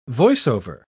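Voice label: "voice-over", English pronunciation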